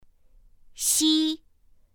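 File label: "x", Mandarin Chinese pinyin; pinyin2; pinyinji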